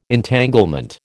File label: "Entanglement", American